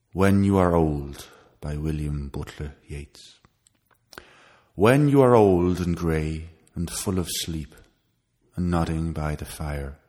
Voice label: irish; Emerald Isle